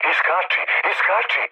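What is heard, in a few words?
Serbian bailout mood low, speech; War Thunder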